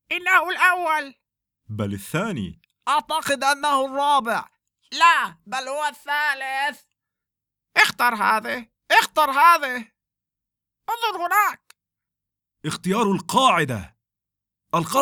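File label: Arabic game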